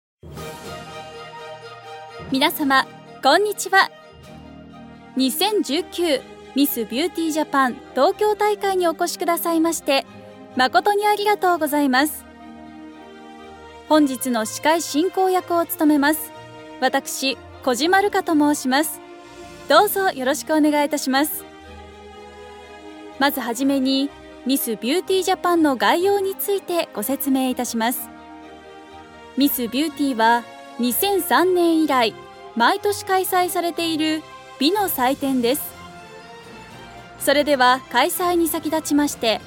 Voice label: event MC